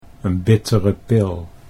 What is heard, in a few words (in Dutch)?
"n bittere pil", Dutch